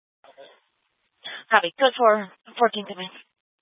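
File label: Incident Tactical, scanner audio recording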